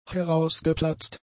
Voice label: "herausgeplatzt", German; adjectives